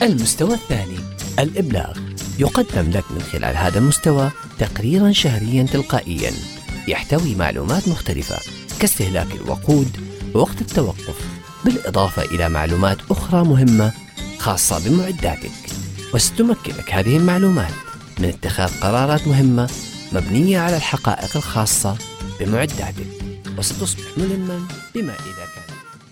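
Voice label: Arabic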